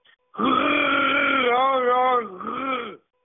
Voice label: voicemail